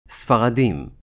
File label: "Sephardim", Hebrew